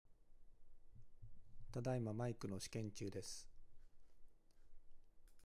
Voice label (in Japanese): iMac外付